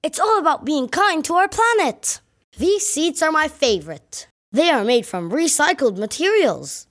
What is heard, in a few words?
son 11